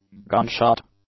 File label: "gunshot", English; nouns